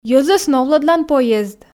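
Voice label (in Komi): "йӧзӧс новлӧдлан поезд", Komi, female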